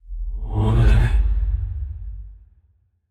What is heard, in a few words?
confused; aragami